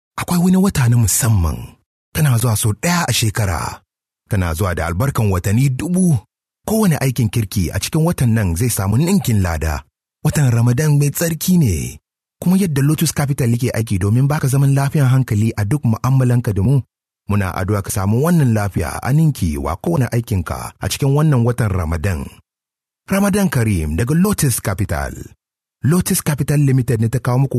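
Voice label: Hausa, male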